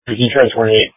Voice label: Police Dispatch